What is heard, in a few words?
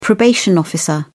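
"probation officer", British English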